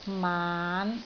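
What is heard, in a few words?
"maan5", Cantonese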